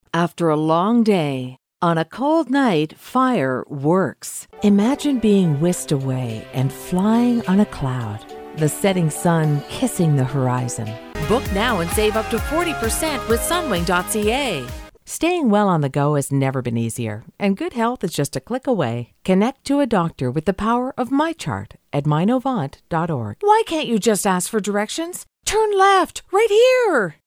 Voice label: radio